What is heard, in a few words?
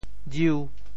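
"jiu5", Teochew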